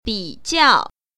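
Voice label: "bi3jiao4", Mandarin Chinese Tone Pair Drills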